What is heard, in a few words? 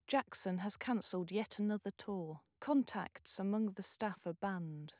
female 8kHz; Speech; from 16kHz